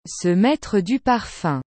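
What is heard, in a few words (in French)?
"se mettre du parfum", French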